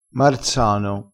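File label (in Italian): "marsano", dialettale crespinese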